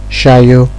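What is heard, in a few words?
"shayo", Nigerian Pidgin, male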